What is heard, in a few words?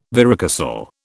"varicocele", British